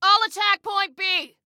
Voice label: ATTACK, Female

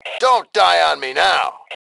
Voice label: Taunt Sarcasm, Male; T2 Male 04

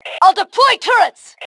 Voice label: Self Task Deploy Turrets, Female

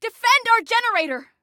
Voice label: Defend Generator, Female; Female 02

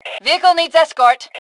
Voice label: Need Escort, Female; T2 Female 03

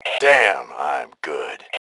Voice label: Taunt Brag, Male; T2 Male 04

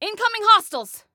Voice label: Warn Enemies, Female; Female 02

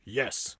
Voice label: Yes, Soldier Male

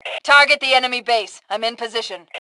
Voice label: Target Base, Female; T2 Female 03

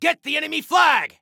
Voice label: Attack Flag, Soldier Male; Soldier Male 01

